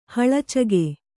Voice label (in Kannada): "ಹಳಚಿಗೆ", Kannada